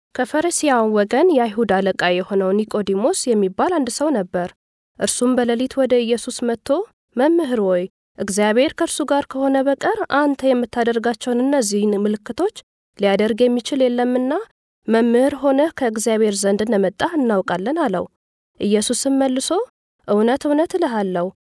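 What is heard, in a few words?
Amharic, female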